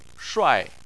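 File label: "shuai" tone 4, Chinese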